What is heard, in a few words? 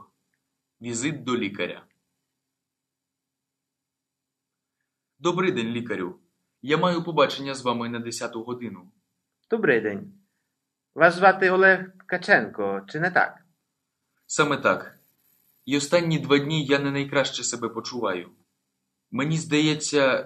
Dialogue, Ukrainian; beginnersukrainian